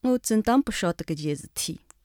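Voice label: hangzhou, Chinese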